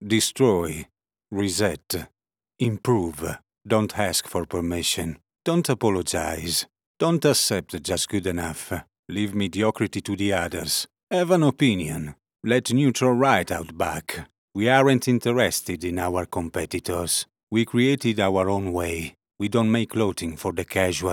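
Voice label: ENG ITA accent FASHION COMMERCIAL, English Italian accent fashion commercial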